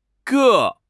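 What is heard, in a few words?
"Guo1", Mandarin Chinese; HSK2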